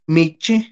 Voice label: "michi", Náhuatl; pez; fish